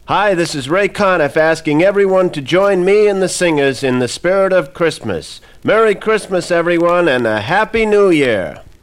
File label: Holiday Greetings Voice Track